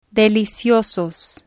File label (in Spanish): "deliciosos", spanish